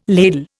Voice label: "ladle", Indian

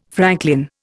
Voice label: "franklin", Indian

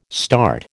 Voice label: "START", American

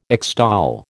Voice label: "extol", American

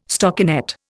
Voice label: "stockinet", Indian

